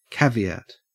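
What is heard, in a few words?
"caveat", Australian English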